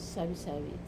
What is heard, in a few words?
"savi-savi" maggot, Wamesa